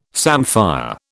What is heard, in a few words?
"samphire", British